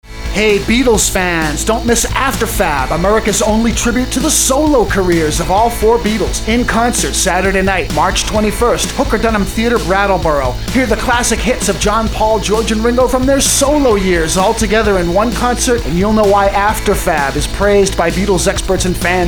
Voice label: radio spot; WKVT